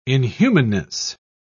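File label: "inhumanness", British English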